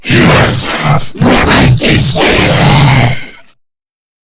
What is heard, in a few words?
Boss Speak Game Taunt; Warframe; Lech Kril